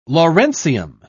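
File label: "lawrencium", British English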